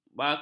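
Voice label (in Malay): "bakul", Malay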